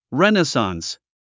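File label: "renaissance", English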